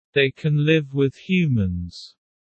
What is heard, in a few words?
low; Animal friends